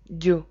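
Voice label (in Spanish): "llu", Spanish syllable; tdeedu; klettres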